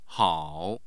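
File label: "hao", Mandarin Chinese, tone 3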